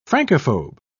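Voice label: "francophobe", English, British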